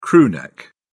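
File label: "crew neck", British English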